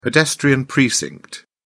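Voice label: "pedestrian precinct", English, British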